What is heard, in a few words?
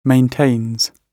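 "maintains", British English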